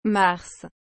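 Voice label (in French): "mars", French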